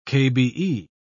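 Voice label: "kbe", British English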